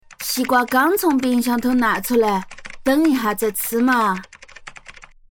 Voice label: 四川方言 女 妈妈角色; 方言配音; 四川话

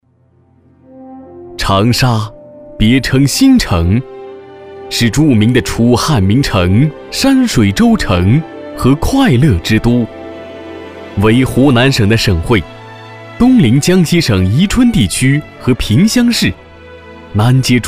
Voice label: 男国 城市宣传片; 长沙城市介绍

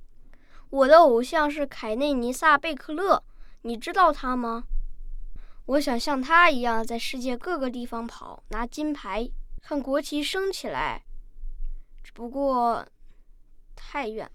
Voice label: 男真童

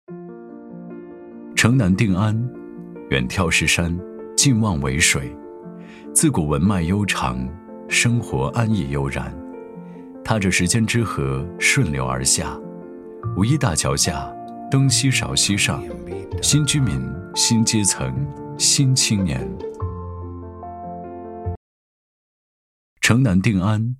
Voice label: 男 年轻文艺; 文旅配音; 涌动城南

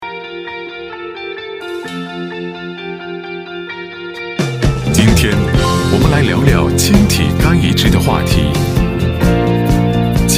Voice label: 男 国 大音 音乐版; 医院

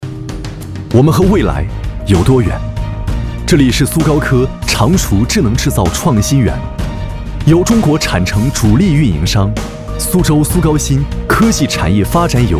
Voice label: 男 形象片; 走心企业形象片样音; 苏高科（常熟）智能制造创新园

